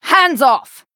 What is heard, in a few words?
Female Night Elf Attack